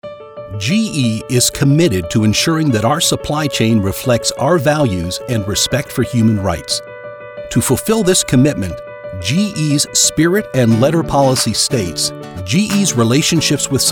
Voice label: Corp Narration